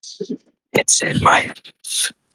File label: voice message